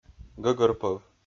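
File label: "гӧгӧрпӧв", Komi, male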